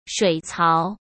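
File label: "水槽", Mandarin Chinese; hsk; chinese-flashcards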